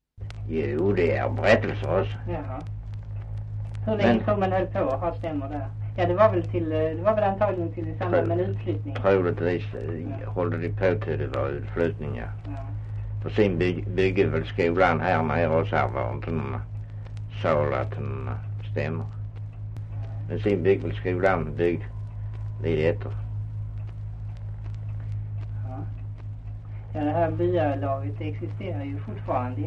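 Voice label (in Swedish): Östra Ingelstad Skåne, dialekter; Folkminnen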